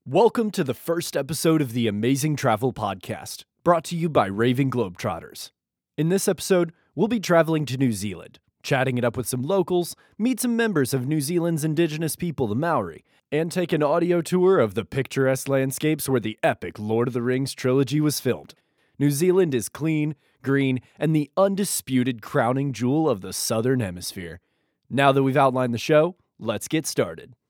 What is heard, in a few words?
Travel Podcast